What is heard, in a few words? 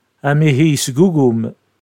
Kabyle